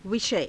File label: "vishaya", Hindi